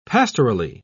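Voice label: "pastorally", British English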